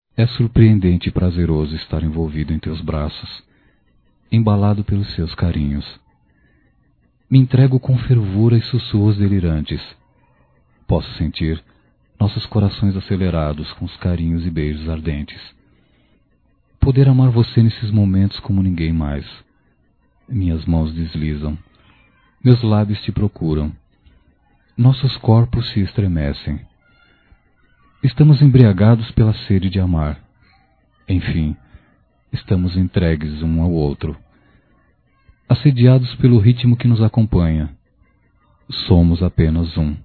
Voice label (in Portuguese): Erótica Masculino; Telemensagens; Só Voz; Telemensagens 2017